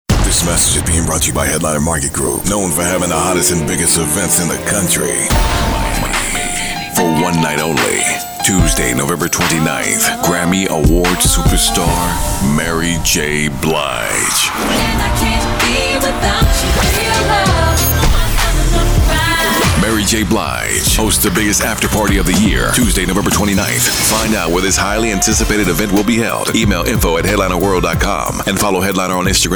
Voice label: VOICE OVER